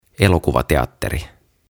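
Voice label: "Elokuvateatteri", Finnish; Cinema; OTAVA KONSERNI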